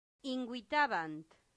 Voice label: "invitabant", Latin; santillana

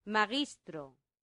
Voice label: "magistro", latin; santillana

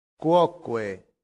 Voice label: "quoque", latin; santillana

